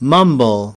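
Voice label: "mumble", American English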